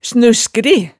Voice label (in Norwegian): "snuskri", hemsedalsmaalet